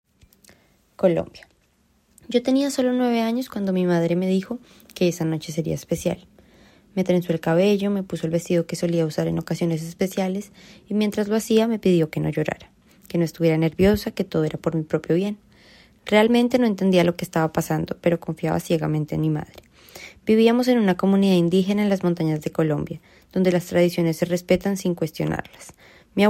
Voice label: Colombia Spanish, Experiencia personal

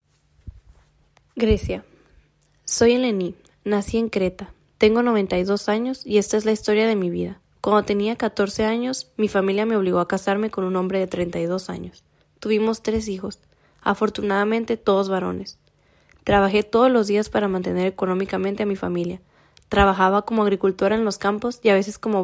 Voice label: Greece Spanish, Experiencia personal; Historia personal/experiencia

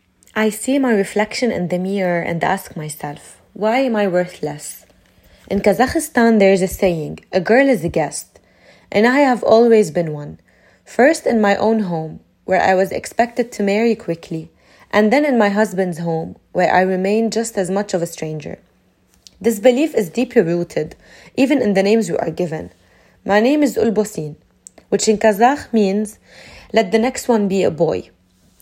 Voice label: Kazakhistan English, Personal Experience

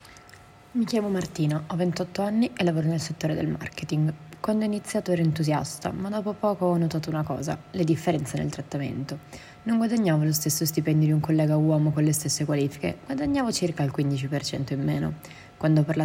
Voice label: Italy Italian, Esperienza personale

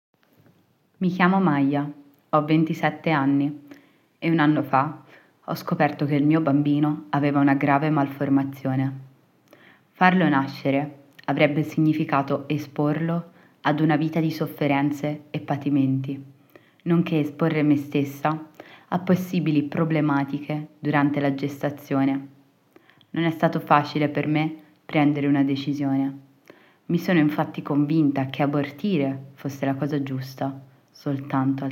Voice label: Poland Italian, Esperienza personale

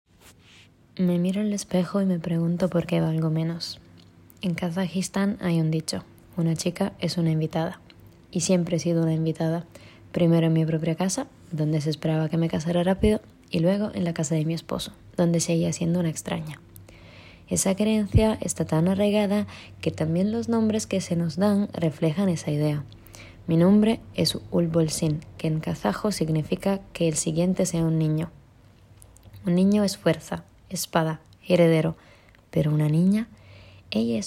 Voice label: Kazakhistan Spanish, Experiencia personal

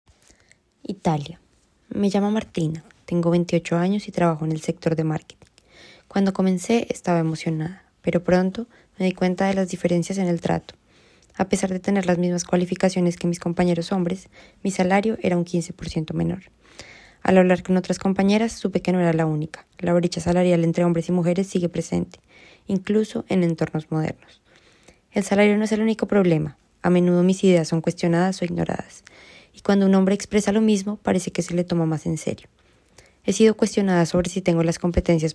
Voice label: Italy Spanish, Experiencia personal

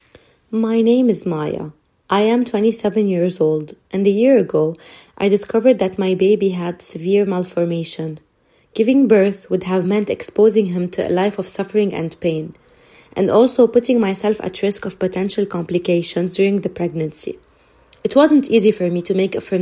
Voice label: Poland English, Personal Experience

